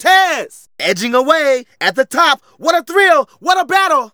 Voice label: Narration

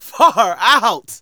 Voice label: Narration

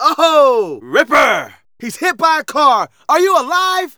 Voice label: Narration

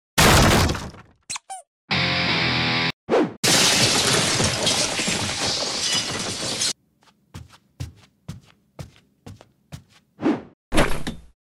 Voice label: Sleeptalk; Cutcene; Friday Night Funkin' Twinsomnia

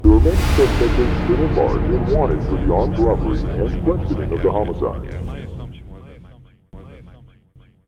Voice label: News

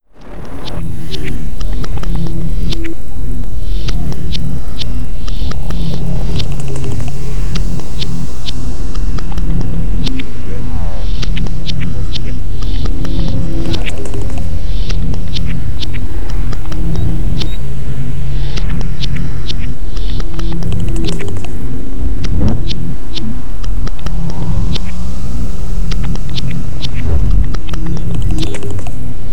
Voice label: Sleepy Talking Loop; Face It - A Game to Fight Inner Demons

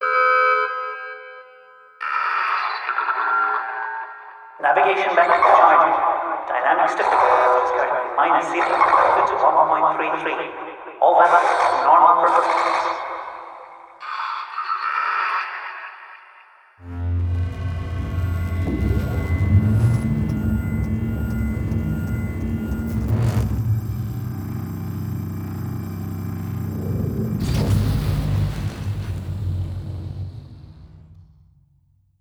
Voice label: Rocket Center Announcement; Alterna

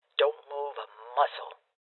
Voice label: Voices Freeze Female Old; Rebel Cops

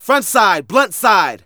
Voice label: Narration

